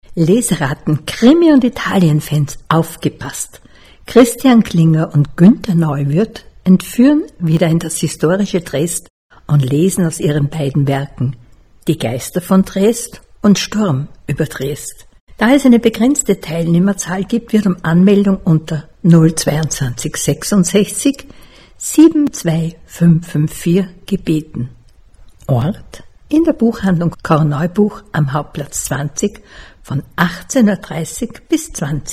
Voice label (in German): Lesung; Buchhandlung Korneubuch